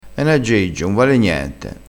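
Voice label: "aggeggio", Italian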